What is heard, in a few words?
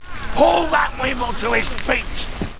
haul that weevil, pirate